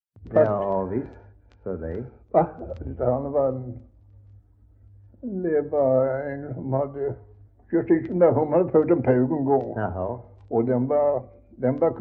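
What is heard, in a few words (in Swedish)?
Västra Ingelstad, Swedish dialect; Folkminnen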